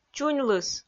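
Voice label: "чуньлыс", Komi, female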